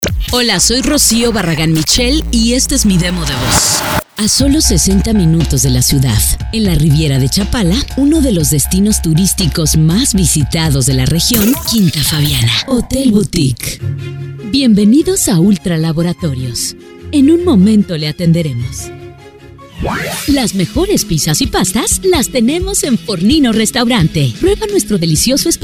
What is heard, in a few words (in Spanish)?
spots, voces